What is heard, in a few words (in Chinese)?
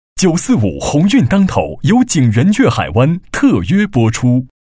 台呼 男 大气; 电台包装; 景园悦